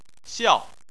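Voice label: "xiao", Chinese, fourth tone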